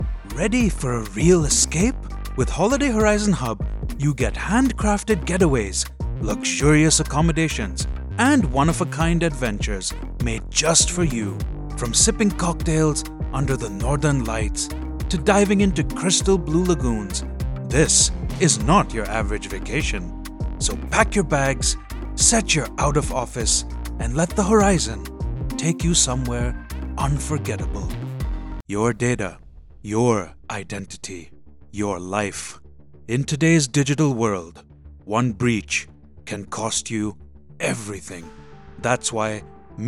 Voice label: Commercial